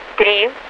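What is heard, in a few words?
Russian speech, Mi-8MT RI65; DCS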